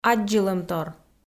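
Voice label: "аддзылӧмтор", Komi, female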